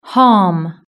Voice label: "harm", british english